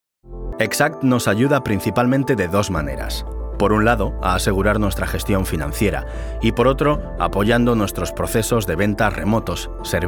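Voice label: es corporate, Spanish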